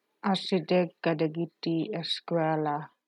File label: Ilocano